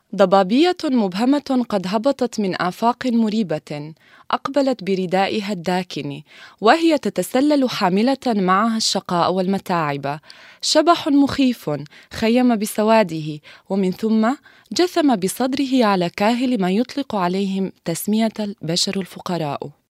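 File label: arab female voice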